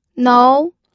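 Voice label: "nou", second tone, pinyin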